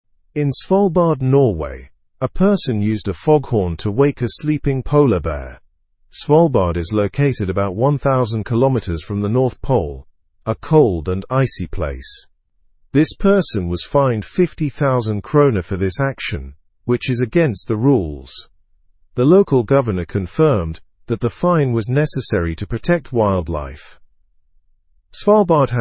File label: learn english through news lower intermediate normal; foghorn startles sleeping polar bear